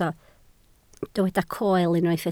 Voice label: "coel", Welsh